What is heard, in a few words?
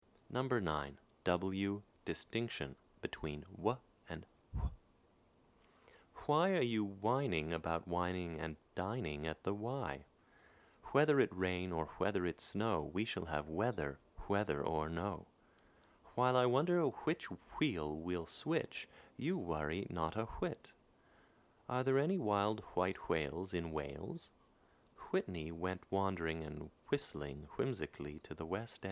rp, phonetics